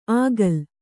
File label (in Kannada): "ಆಗಲ್", kannada